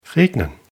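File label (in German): "regnen", German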